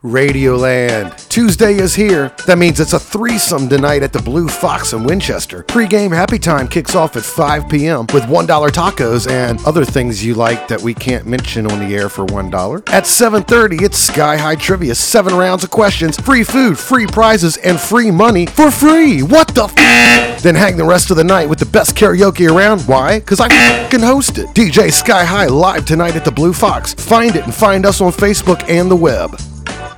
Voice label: Commercial